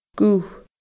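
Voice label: "guth", Scottish Gaelic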